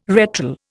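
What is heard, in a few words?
"retral", Indian English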